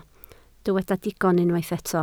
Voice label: "digon", Welsh

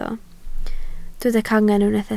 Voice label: "cangen", Welsh

